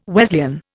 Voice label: "Wesleyan", Indian

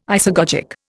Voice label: "isagogic", Indian

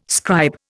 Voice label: "scribe", Indian

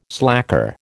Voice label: "slacker", American

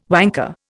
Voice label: "wanker", Indian

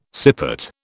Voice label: "sippet", British

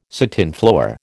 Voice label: "satinflower", American

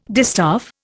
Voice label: "distaff", Indian

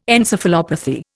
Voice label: "encephalopathy", Indian

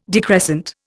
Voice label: "decrescent", Indian

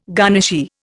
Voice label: "garnishee", Indian

